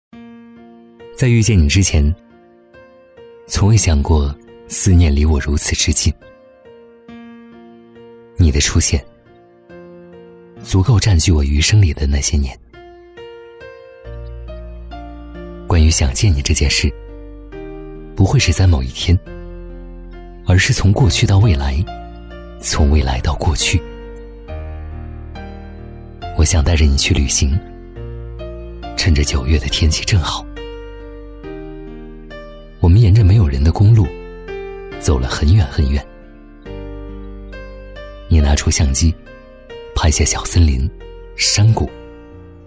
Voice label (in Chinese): 男C9-旁白走心-温暖磁性, 年轻质感 质感磁性; 想见你